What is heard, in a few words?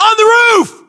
US location rooftop generic, battlechatter, english